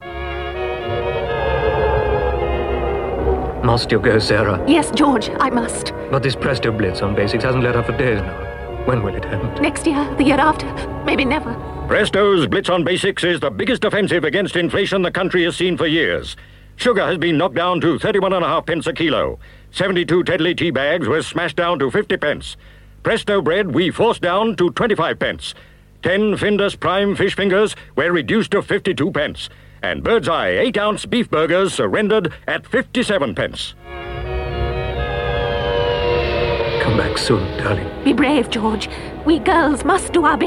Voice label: Radio Hallam Advert; Presto Price Blitz

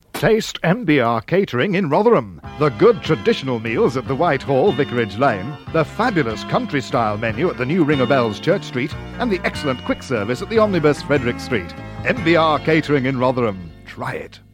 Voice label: Radio Advert, Catering; Radio Hallam; MBR

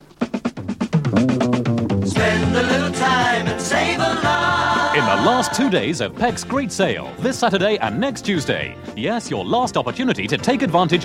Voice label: Radio Hallam Advert - Pecks Dress Offer

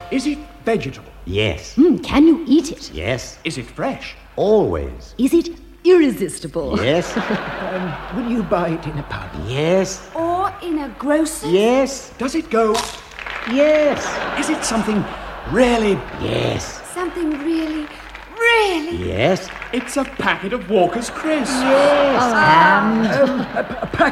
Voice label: Radio Advert; Walkers; Animal Vegetable Mineral; Radio Hallam

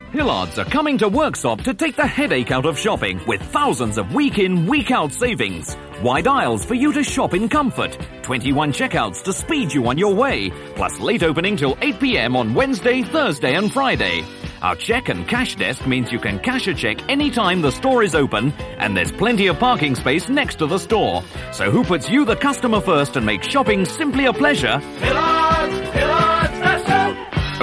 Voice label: Radio Hallam Advert; Hillards

